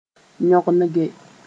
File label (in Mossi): "yõnge", moore